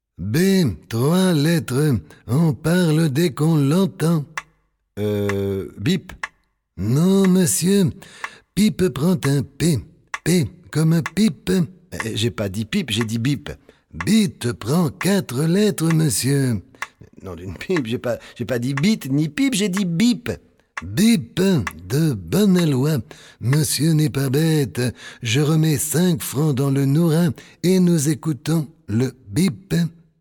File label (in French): Répondeur; Maître Capello